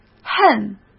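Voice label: "恨" Mandarin Chinese; chinese flashcards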